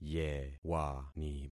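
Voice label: "yewani", concatenated syllables; Affixing experiment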